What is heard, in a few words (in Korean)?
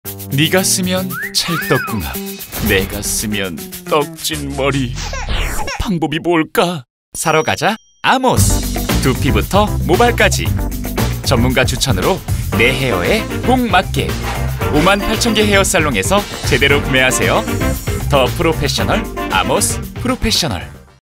CM 경쾌하고 기분좋은